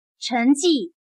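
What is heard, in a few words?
"成绩", Chinese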